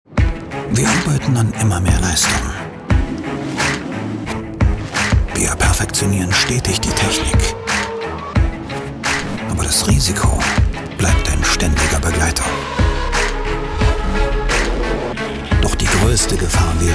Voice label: Werbung Dynamisch

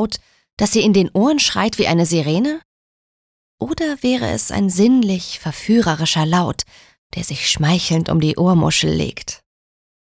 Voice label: Farbe Rot Neutral

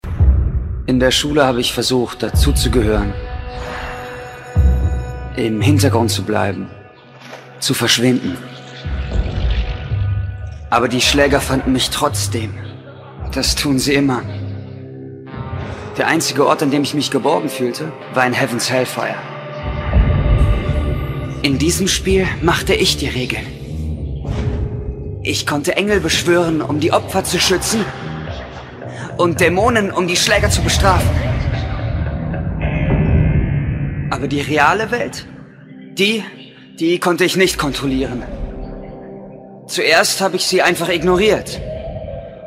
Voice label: Fremdtake Dynamisch

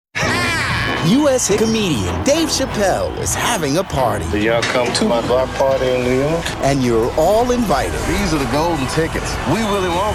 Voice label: Eng US Dynamisch

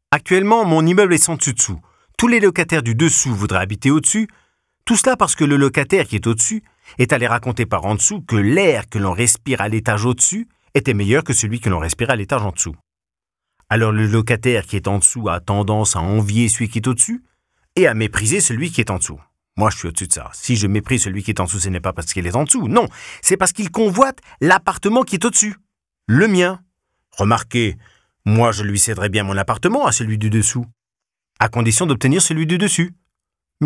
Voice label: Kommentar Neutral, FR

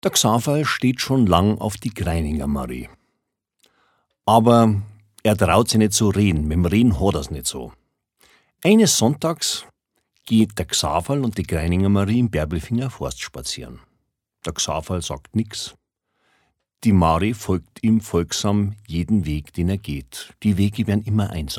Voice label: Dialekt Bayerisch

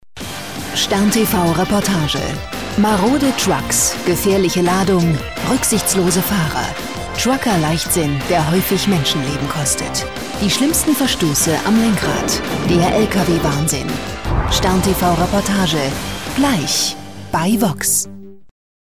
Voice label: Energisch

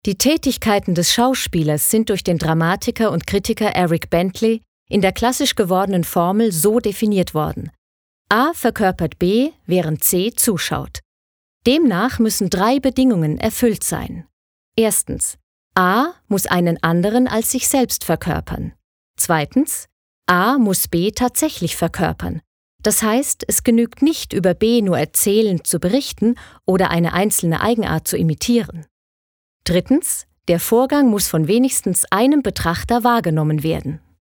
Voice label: Neutral; Schauspieler

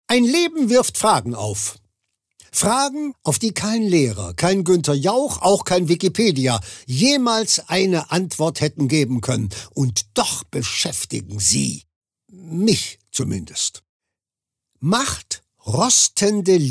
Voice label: Schauspiel Energisch

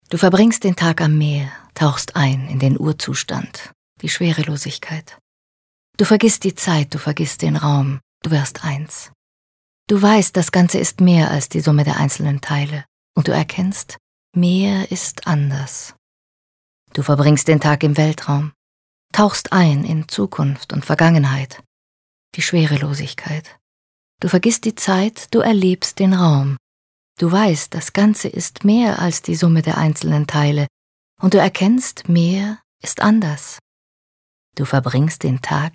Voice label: Schauspiel Sanft

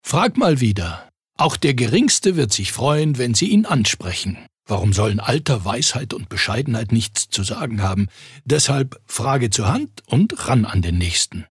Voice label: Werbung, Freundlich

